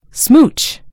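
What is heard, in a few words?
"smooch", British English; gcompris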